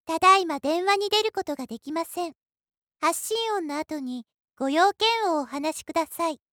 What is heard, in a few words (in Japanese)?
システムボイス